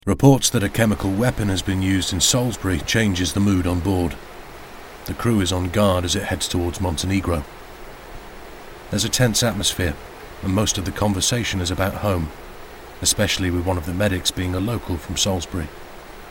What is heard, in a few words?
Documentary